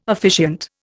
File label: "officiant", Indian